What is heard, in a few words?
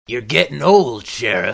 weary; wanted